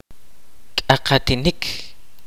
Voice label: "scratch", kaqchikel